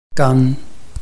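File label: "gan", Chinese, tone 1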